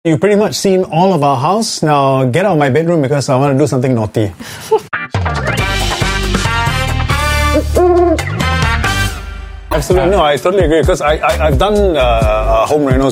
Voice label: Asian English Interview Snippet

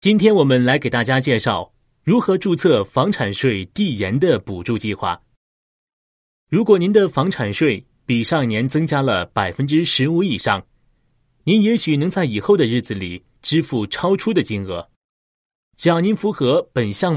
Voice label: Mandarin, China

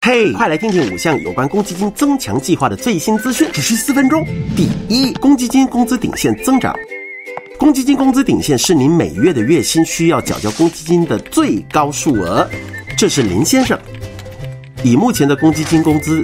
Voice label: Mandarin Voice Sample, Mandarin China Male

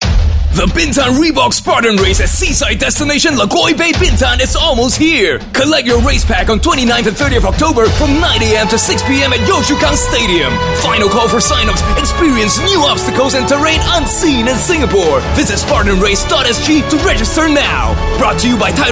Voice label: Asian English; Reebok Spartan Race

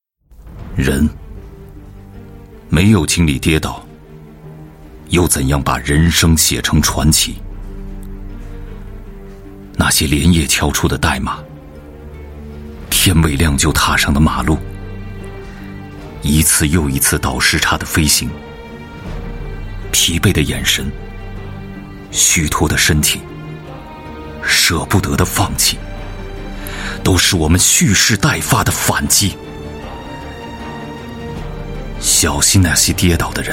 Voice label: Mandarin China Aspirational

